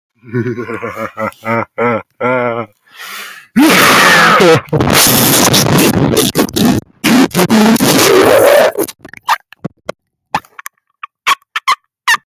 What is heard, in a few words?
voice message